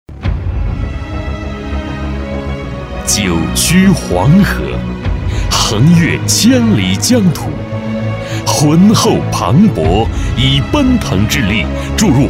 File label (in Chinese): 男 大气辽阔; 南阳